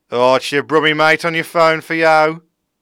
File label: Brum Black Country